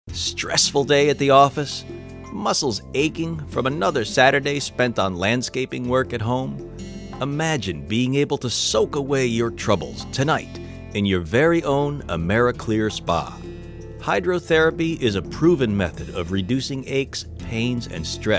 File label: spa ad